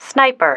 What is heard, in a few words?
"sniper", female